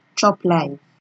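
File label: "chop life", Nigerian Pidgin, female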